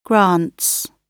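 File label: "grants", British English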